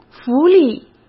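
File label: "福利", Mandarin Chinese; hsk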